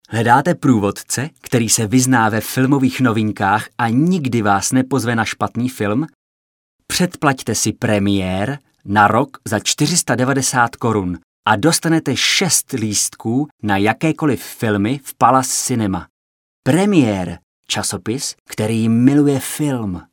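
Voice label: Male Czech commercial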